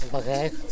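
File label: "bagegu", Teotitlán del Valle Zapotec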